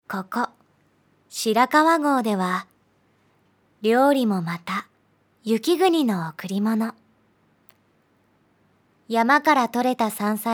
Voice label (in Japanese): ナレーション 旅番組